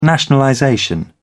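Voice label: "nationalization", British English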